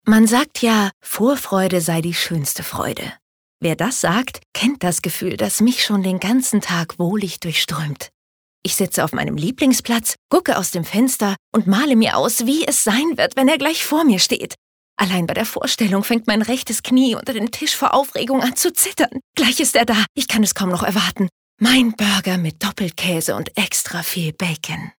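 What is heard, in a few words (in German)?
acting, Dynamisch; Kleine Freuden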